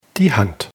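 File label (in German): "hand", German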